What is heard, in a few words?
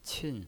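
"qin" fourth tone, pinyin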